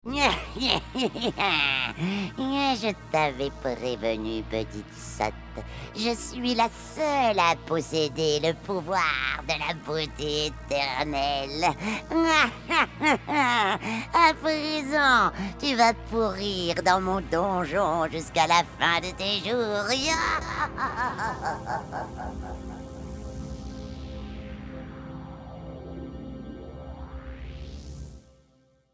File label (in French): Personnage Sorciere